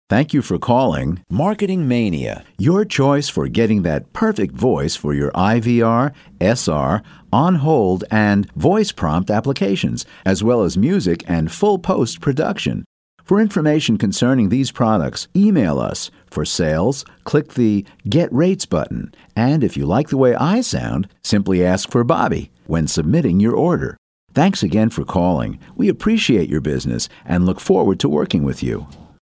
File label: Voice Sample, onhold